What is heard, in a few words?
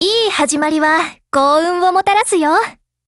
HELLO JP; GF Alarm; K5